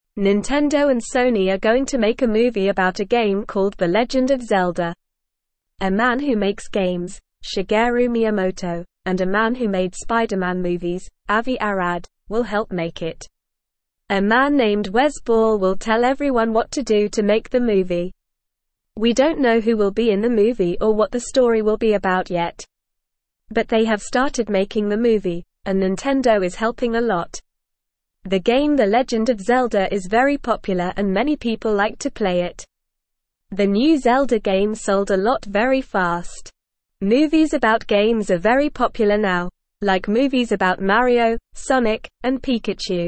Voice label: English Newsroom Beginner NORMAL Reading; Nintendo and Sony Making The Legend of Zelda Movie

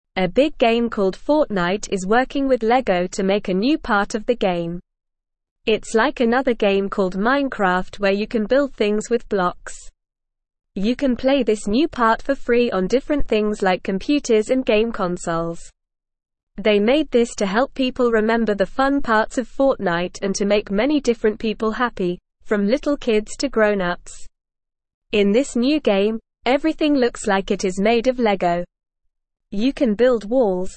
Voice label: English Newsroom Beginner NORMAL Reading; Fortnite and Lego Make Fun Game Together

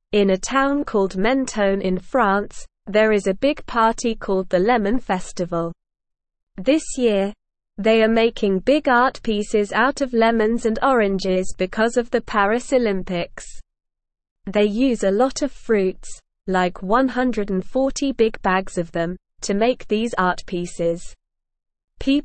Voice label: English SLOW Reading; Big Lemon Festival Celebrates Paris Olympics with Fruit Art

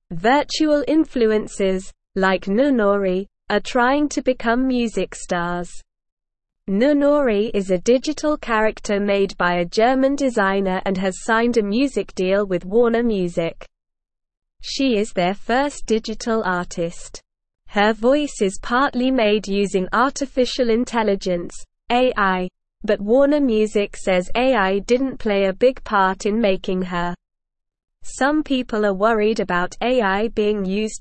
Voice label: English Lower Intermediate SLOW Reading; Noonoouri A Pretend Music Star Made on a Computer; English Newsroom

